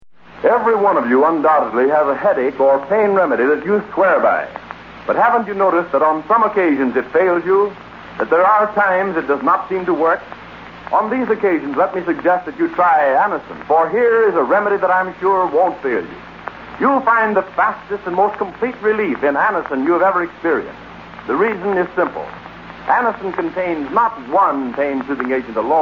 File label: Vintage Commercials; Anacin